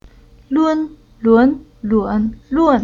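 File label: "Lun", Chinese syllable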